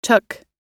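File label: "took", US English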